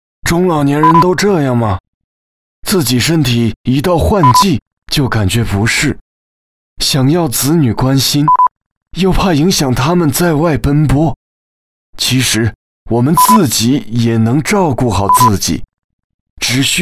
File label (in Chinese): 男 角色 中老年男